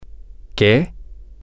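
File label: what, Spanish, male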